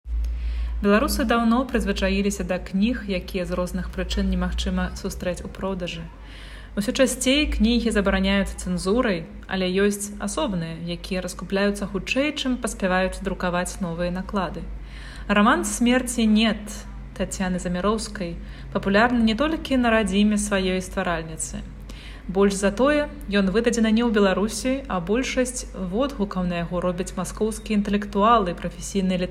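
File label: Belarusian, voice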